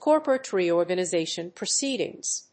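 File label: "corporate reorganization proceedings", English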